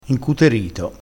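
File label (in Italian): "incuterito", vocabolario dialettale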